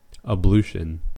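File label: "ablution" US English; pronunciation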